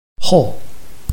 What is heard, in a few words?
"hou4", Chinese